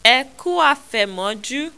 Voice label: E ku Afemoju, Yoruba

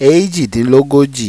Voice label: "Ejidinlogoji", Yoruba

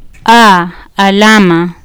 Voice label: "alama", Kiswahili

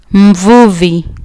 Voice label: "mvuvi", Kiswahili

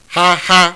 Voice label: "haha", Yoruba; CONSONANTS; haha-hausa-iho

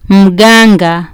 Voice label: "mganga", Kiswahili

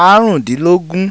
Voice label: "Arundinlogun", Yoruba

